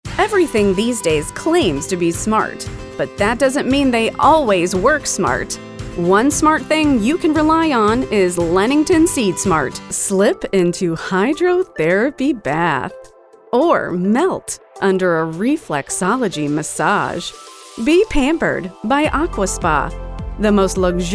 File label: Commercial